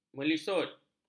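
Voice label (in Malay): "melisut", Malay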